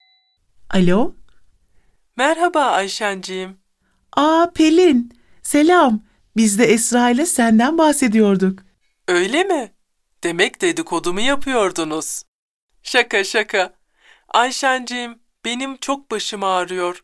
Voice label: dialog